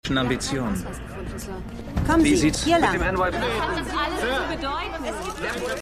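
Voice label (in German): Forensikerin Polizistin Reporterin, Stimmproben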